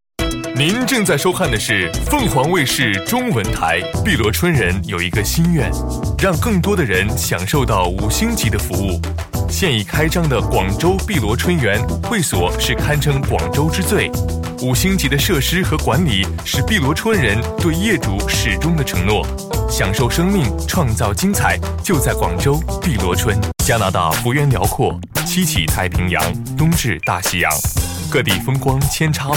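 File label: male, Mandarin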